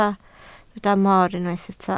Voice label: "mor", Welsh